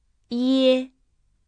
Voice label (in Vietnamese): "ie", tiếng trung; nguyên âm